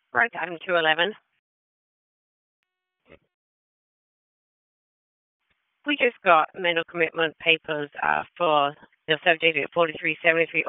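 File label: Raleigh Police Northeast District Dispatch, p25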